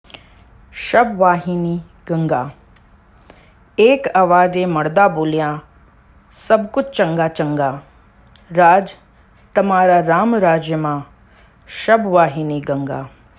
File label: Gujarati version